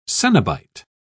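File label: "coenobite", English, British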